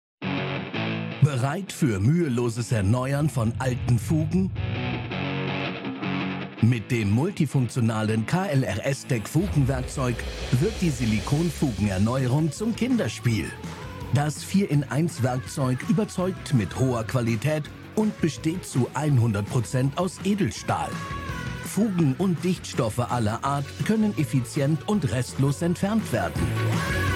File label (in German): PRODUKTVIDEO; KLRStec